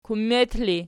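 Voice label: "kummet", ergative, Archi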